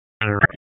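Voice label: Police Southwest District Dispatch, p25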